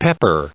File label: "pepper", en-us recite